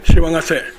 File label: "sewasune", minamiyamagata